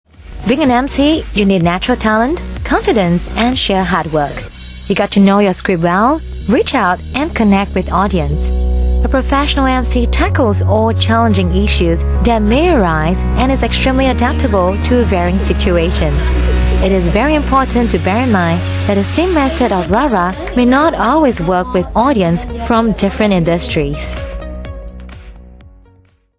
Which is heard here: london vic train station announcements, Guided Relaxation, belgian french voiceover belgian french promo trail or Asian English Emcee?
Asian English Emcee